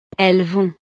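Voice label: "elles vont", French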